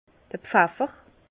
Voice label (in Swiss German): "pfeffer", Bas Rhin; vin; poivre